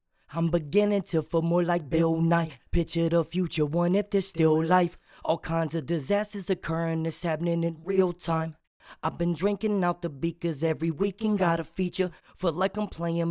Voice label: acapella, HH 75 EM